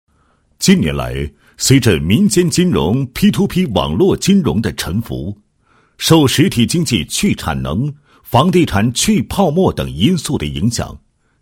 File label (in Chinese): 男 企业 汽车金融管理有限公司宣传片; 龙翔众智汽车金融管理有限公司宣传片